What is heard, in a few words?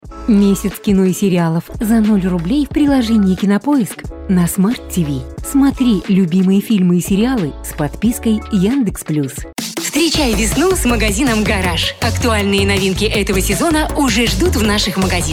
COMMERCIALS; English (North American)